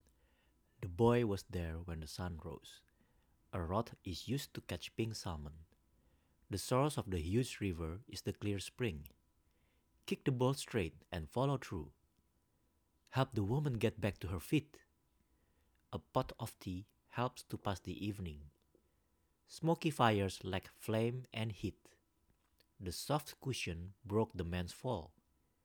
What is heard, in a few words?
Rode XCM 50 recording